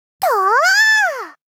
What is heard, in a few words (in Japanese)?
と～～～う！ロリ系×高音域